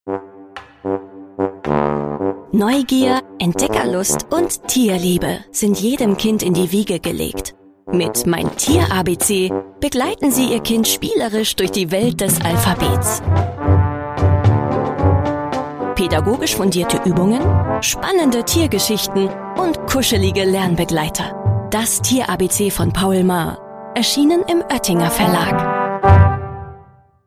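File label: german promos, female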